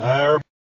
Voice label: bruh reversed

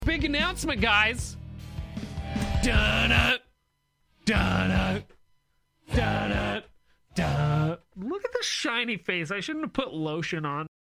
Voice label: announcement

